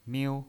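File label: "miu", pinyin voice, Mandarin Chinese, first tone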